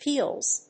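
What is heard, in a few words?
"peels", English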